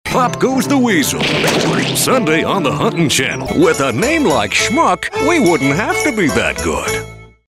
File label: southern humorous